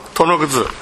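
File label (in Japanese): "tonoguzu", 南山形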